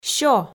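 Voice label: "сё" Komi, female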